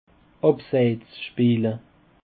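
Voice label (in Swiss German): "abseitsspeele", Alsatian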